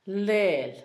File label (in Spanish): su nombre, Zapoteco, Asunción Ocotlan; Posesivos; INALI